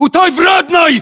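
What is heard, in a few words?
landmark near outhouse, battlechatter, Russian; localized english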